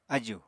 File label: "aju", Apatani